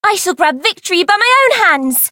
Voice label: Voice chant; sophia

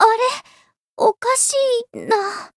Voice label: retire, jp; bivette

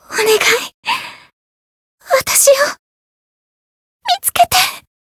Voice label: Voice story; nona

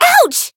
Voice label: Voice damaged

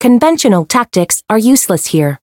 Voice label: Voice encount fear

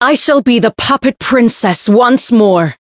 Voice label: variable chant; hiina

